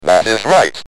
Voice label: Speak & Spell UK That's Right

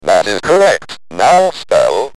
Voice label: Speak & Spell UK That is Correct

